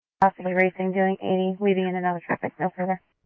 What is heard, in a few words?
scanner, Scottsdale Police